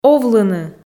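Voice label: "овлыны", Komi, female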